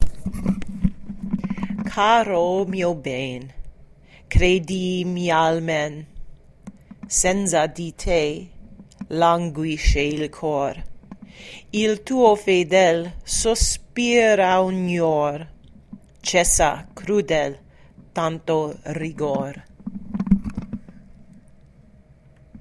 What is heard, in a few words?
Caro mio ben pronunciation